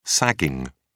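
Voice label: "sagging", British English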